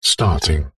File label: science fiction computer console voice robotic male says "starting"; zapsplat